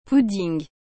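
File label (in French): "pudding", French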